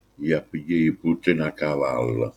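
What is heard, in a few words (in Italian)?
"cavallo", Manfredonia dialect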